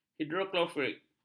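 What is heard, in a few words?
"hidroklorik", Malay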